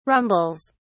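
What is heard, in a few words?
"rumbles", English, British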